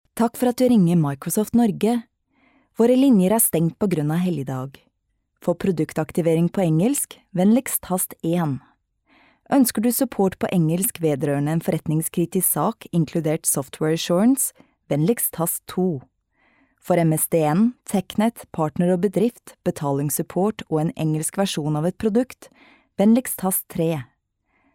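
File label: norwegian ivr, female; norwegian voice actors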